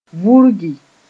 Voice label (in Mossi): "vurgi", moore